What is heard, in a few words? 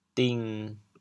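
"ding7", Teochew, chaoyang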